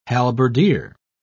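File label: "halberdier", British English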